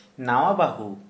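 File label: bride, Santali, male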